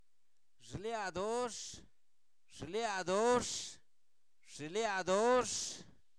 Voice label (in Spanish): fiebre, Zapoteco, santo domingo albarradas; INALI; enfermedades